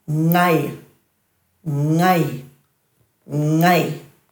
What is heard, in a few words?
"ngay", Dhurga